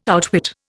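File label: "outwit", Indian English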